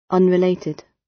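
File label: "unrelated", British English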